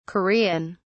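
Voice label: "korean", British English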